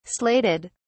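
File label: "slated", British English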